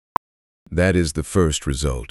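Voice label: speaker male slow low, first result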